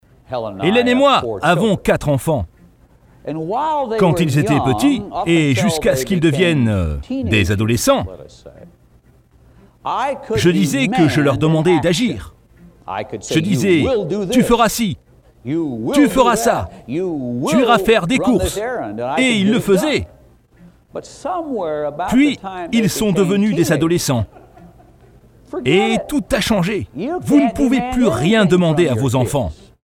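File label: doblaje, French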